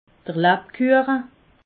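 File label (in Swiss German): "labkuche", Alsatian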